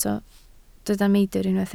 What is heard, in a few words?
"meidr", Welsh